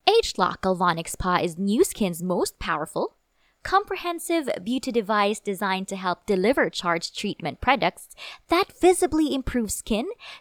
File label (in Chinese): 外籍英女, 产品介绍